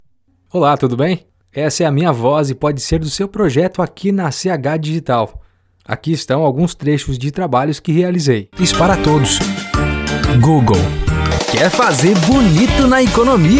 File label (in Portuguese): Voz Masculina